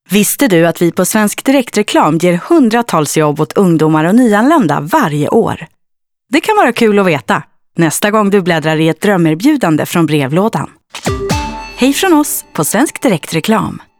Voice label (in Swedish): radioreklam; csr; 100-tals jobb